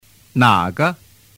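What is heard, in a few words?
"nage", Mandarin Chinese; doi dau giong; giao trinh 301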